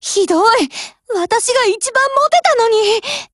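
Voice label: BREAK Japanese, Voice; GF Alarm; Glock17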